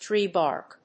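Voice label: "tree bark", English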